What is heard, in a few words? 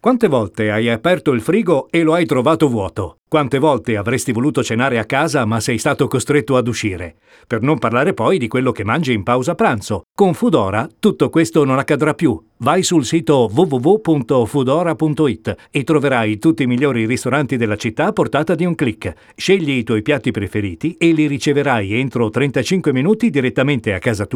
spot radio; foodora